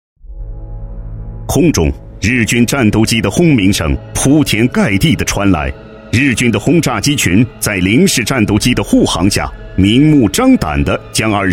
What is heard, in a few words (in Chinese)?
男 历史解说 军事历史 硬风格, 国语男声配音; 防空战役; 浑厚